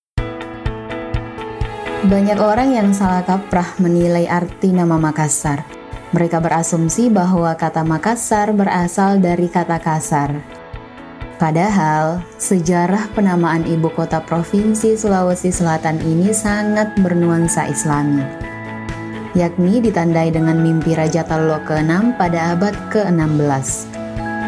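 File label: Indonesian formal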